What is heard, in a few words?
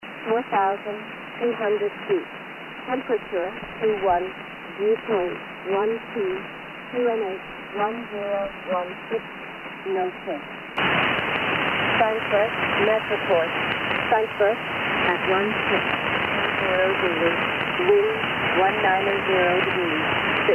Shannon Volmet USB; 5505khz